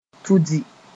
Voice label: "tudi", moore